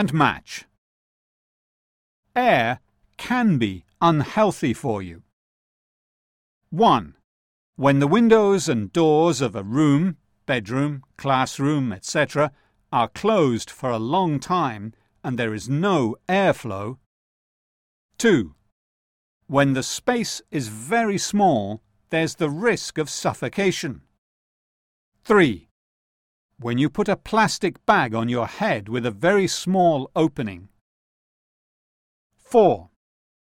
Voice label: Inglese Breathing Safely